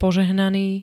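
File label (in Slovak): "požehnaný", Slovak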